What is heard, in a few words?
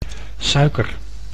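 "suiker", Dutch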